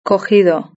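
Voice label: "cogido" Spanish; verb-conjugator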